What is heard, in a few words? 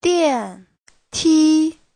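"diàntī", Mandarin Chinese; 电梯; thang máy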